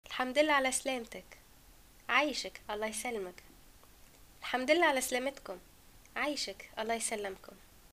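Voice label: greeting arrival, Tunisian Arabic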